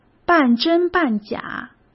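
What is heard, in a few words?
"半真半假", Mandarin Chinese; hsk; chinese-flashcards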